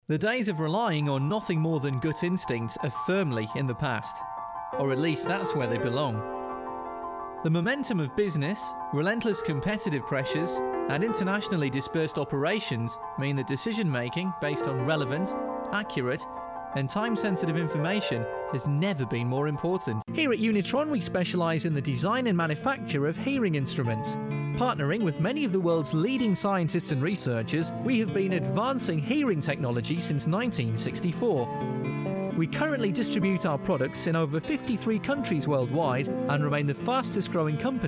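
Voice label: Corporate and Image Montage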